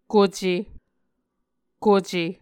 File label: "kuce", Muscogee